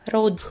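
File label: "h1roudh", Proto-Indo-European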